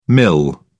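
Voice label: "mil", British English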